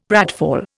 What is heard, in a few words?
"pratfall", Indian; Dictionary